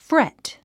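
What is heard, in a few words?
"fret", English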